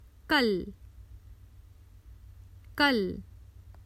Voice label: Common Hindi Phrases for travel Yesterday